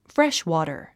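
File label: "freshwater", English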